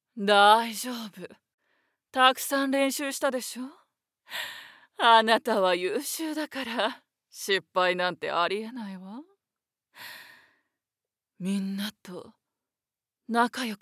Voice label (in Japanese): アニメ